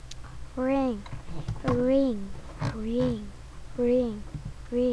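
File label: "ring", spoken word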